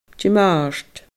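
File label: "Di Màirt", Scottish Gaelic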